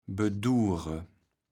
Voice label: "bedure", kaevels platt

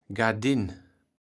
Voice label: "Gardinn", Platt

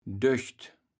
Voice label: "Dögt", kaevels platt

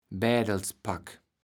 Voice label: "Bädelspack", kaevels platt

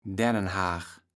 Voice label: "Daennenhag", kaevels platt

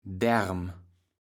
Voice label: "Därm", kaevels platt

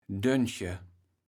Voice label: "Döntche", kaevels platt

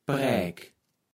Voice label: "Präk", Platt

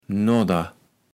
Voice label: "nodder", Platt

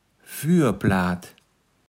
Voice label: "Fürplaat", Platt

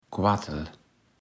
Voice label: "Quartel", kaevels platt

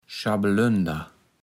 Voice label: "Schabelönder", Platt

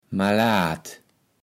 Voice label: "malaad", kaevels platt

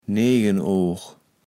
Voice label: "Neegenoog", Platt

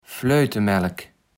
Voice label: "Fleutemelk", Platt

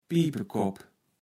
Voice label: "Piepekopp", kaevels platt

